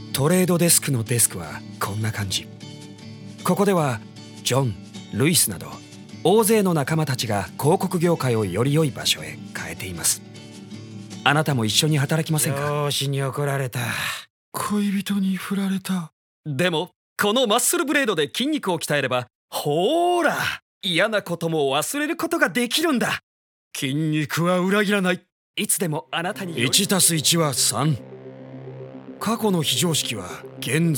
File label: Commercial Japanese